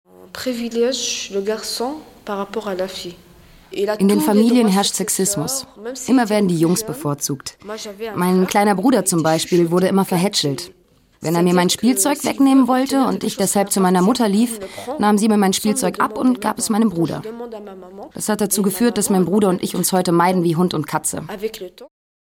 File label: voice over